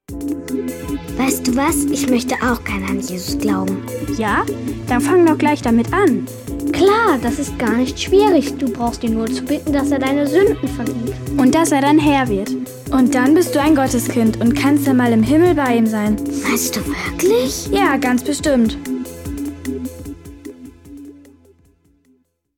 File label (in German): Hoerszene; Die Gute Nachricht